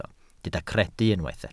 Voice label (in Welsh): "credu" Welsh